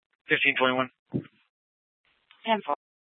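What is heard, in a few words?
Scottsdale Police Department South Dispatch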